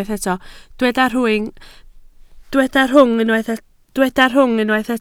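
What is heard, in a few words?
"rhwng" Welsh, spoken word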